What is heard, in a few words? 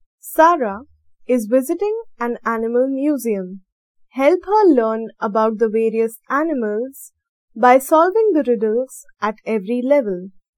dialog; Elevate LMS; EVS; SolveThis